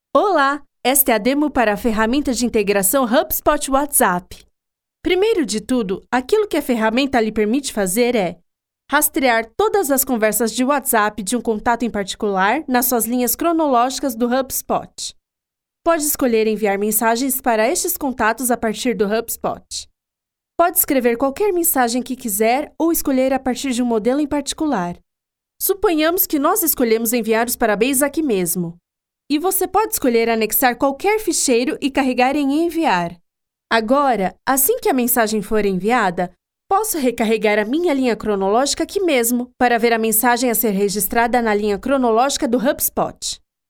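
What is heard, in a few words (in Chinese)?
葡萄牙语 女声 巴西; 外籍; 葡萄牙语外籍合集列表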